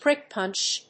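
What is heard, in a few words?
"Prick punch", English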